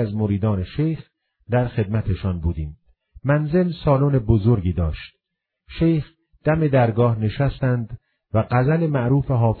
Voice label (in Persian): خواندن اشعار با صدای خوش